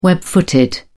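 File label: "web footed", British English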